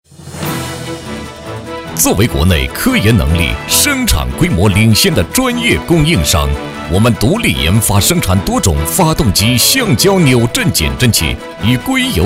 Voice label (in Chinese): 男 企业 科技类 快速 有力